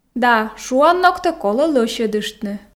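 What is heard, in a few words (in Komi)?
да шуанногтӧ колӧ лӧсьӧдыштны, Komi, female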